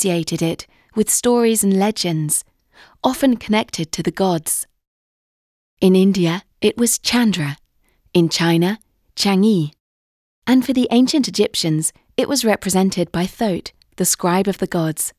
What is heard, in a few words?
narration planetarium the moon eng